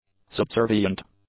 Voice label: "subservient", English; adjectives

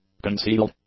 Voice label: "concealed", English; adjectives

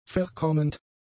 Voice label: "verkommend", German; adjectives

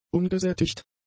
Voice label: "ungesättigt", German; adjectives

